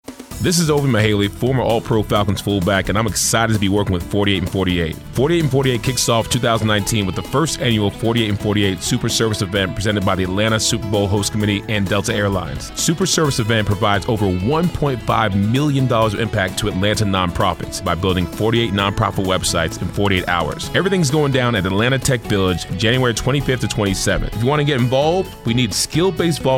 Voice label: radio spot